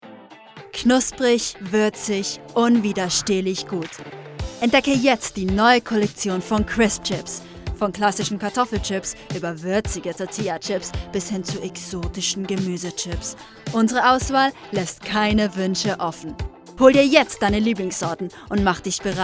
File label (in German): Werbung Deutsch